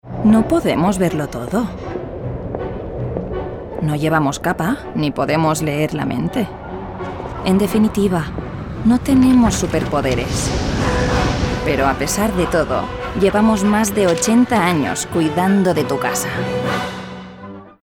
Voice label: female spanish insurance comercial; ideasonora

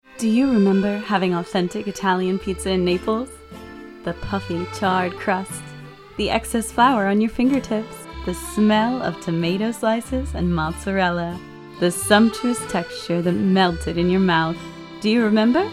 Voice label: female american english sensation comercial; ideasonora

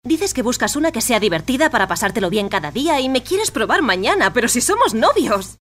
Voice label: female spanish acting comercial

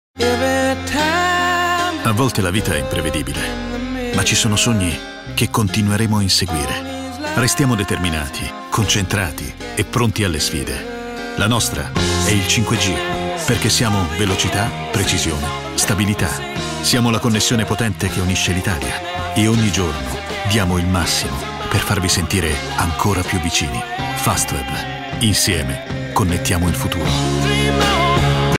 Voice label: male Italian tv comercial; ideasonora

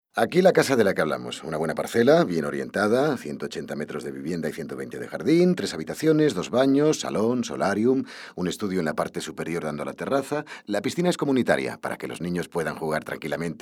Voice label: male spanish comercial; ideasonora

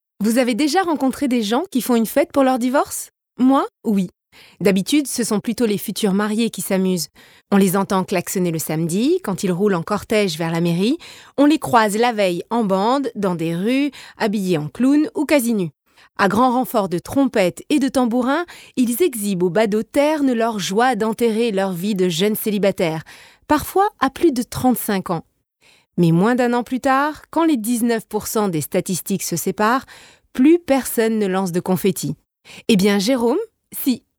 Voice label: female french comercial; ideasonora

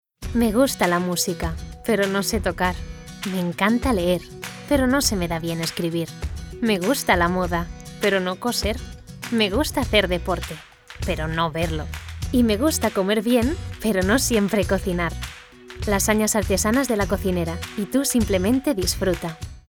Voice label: female spanish comercial

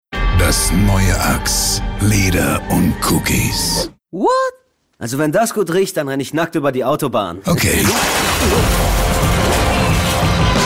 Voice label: male german comercial; ideasonora

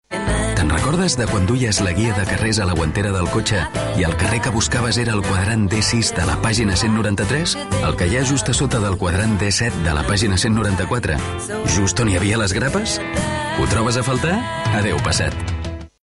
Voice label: male catalan oil comercial; ideasonora

